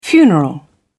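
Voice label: "funeral", British English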